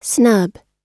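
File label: "snub", American English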